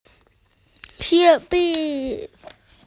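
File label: "qian bi" (pencil), Chinese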